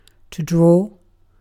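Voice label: "draw", British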